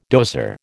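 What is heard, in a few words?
"dozer", American English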